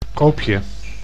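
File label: "koopje", Dutch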